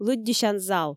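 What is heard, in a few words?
"лыддьысян зал", Komi, female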